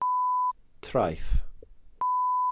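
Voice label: "traeth", Welsh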